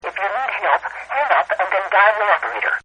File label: Telephone Operator; Telephones; Telephone Voices